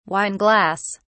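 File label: "wine glass", British English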